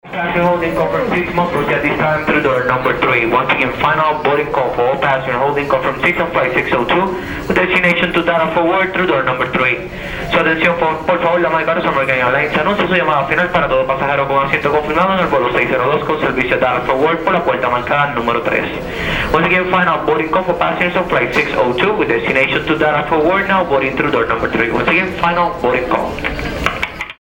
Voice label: airport english